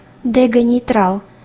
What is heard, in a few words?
girl